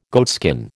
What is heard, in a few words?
"goatskin", American